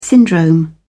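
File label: "syndrome", British English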